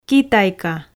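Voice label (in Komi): "китайка", Komi, female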